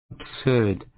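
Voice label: "absurd", British English, male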